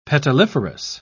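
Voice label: "petaliferous", British English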